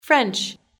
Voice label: french